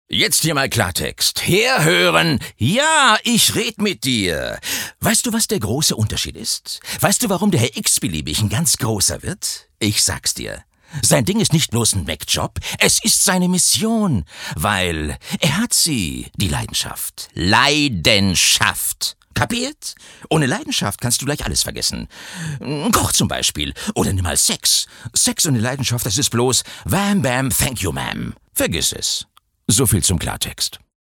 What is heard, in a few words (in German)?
Schauspiel Energisch